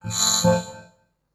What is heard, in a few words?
VOICEFX TEKNO 140, Techno, Voice